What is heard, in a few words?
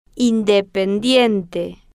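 "independiente", slow, Spanish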